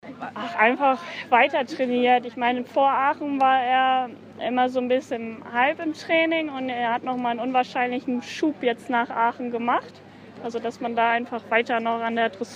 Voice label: ger; Longines Auffarth Fri

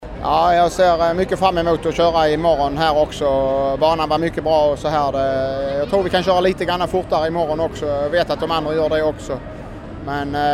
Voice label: swe; DRI

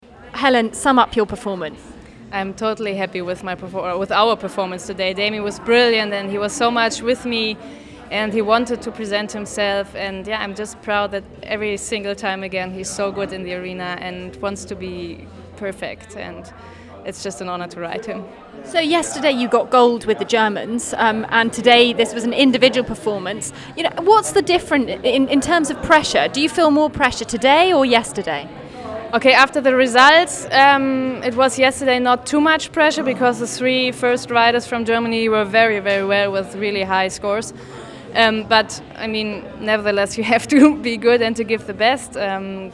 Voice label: English; Helen Langehanehberg day two WEG

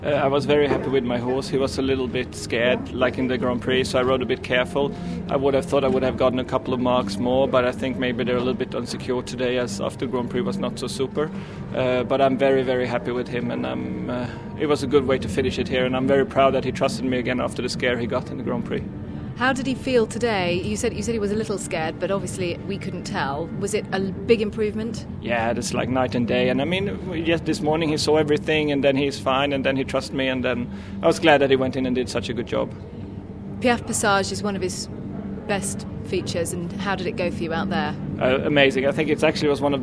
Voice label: eng

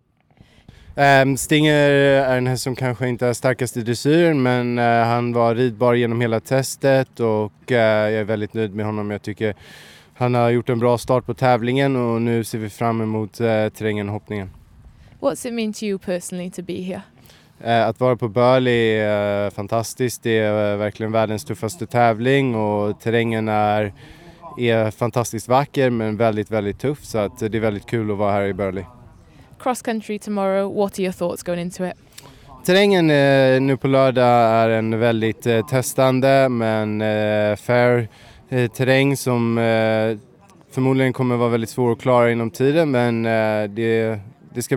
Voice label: Swedish; LRBHT Ludwig Svennerstal Dressage

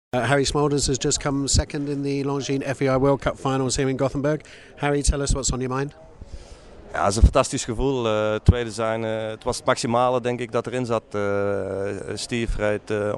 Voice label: Dutch; Longines FEI World Cup Jumping Second Harrie Smolders

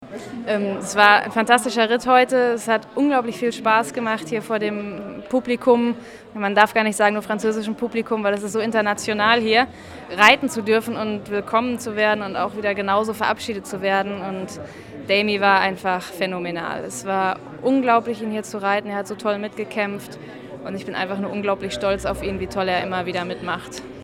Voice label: Deutsch; Helen Langehanenberg day two WEG

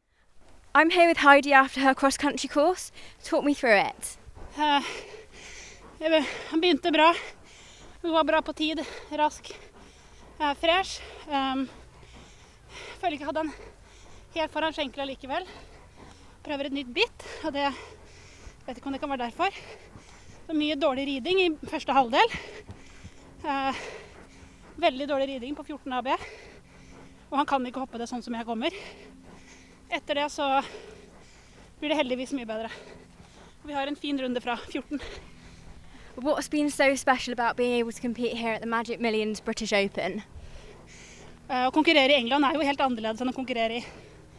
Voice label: NORWEGIAN; FOBE 2018 British Open day3

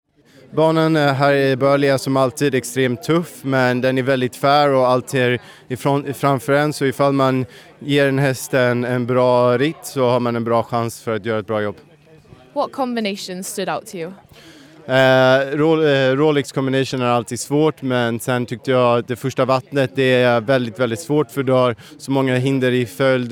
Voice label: Swedish; LRBHT Ludwig Svennerstal Cross Country Saturday

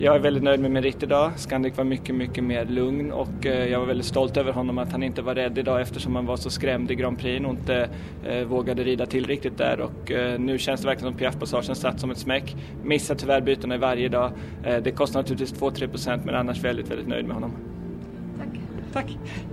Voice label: swe

